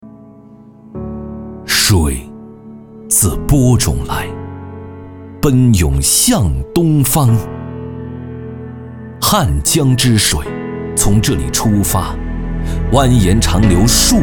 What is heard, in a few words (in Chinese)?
男 宣传片 高端宣传